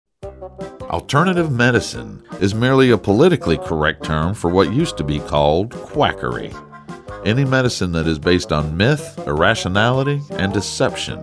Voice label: Narration; male voice over